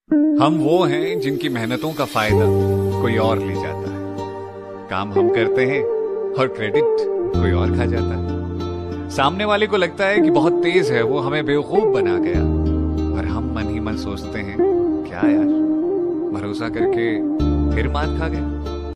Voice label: Shayari Ringtone

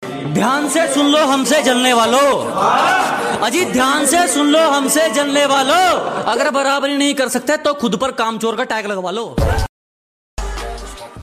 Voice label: Attitude Shayari Ringtone; Dhyan Say Sun Lo Ham Say Jalny Walo

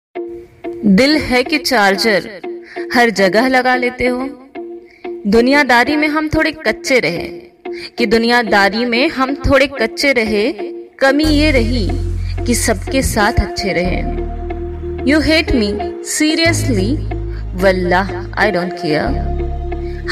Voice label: Girls Attitude Ringtone

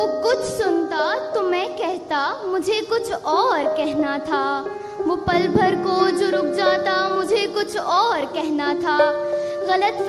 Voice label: Best Female Shayari Ringtone; ringtones

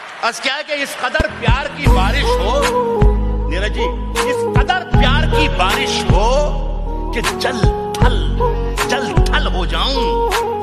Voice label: Shayari Ringtone; Rahat Indori

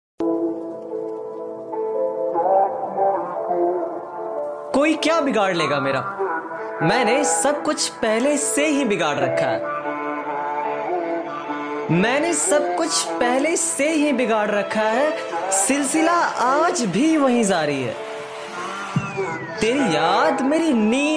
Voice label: Sad Hindi Shayari

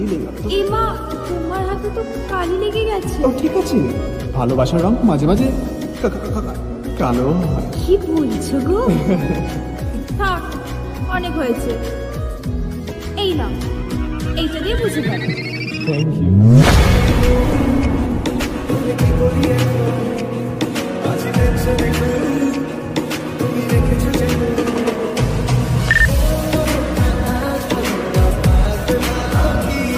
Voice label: Bengali Love Song Dialouge Ringtone

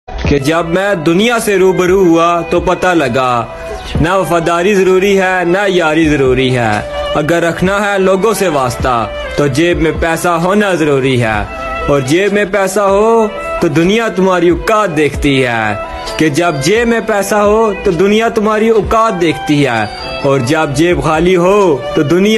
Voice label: Attitude Shayari Ringtone

